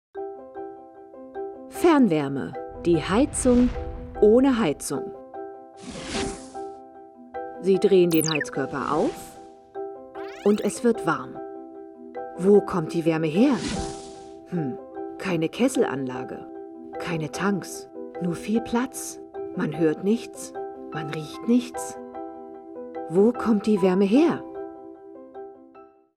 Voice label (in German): Sprachprobe Imagefilm Fernwaerme